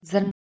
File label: "zrn" Czech